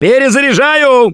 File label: reload intense, russian; Gambler